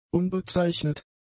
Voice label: "unbezeichnet", German; adjectives

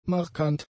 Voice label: "markant", German; adjectives

